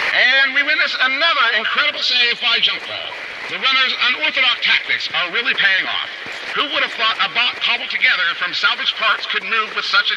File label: Transmission Echoes